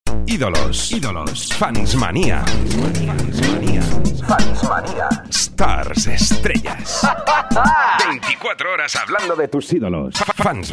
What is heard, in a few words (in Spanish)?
Separador Jingle Radio Spanish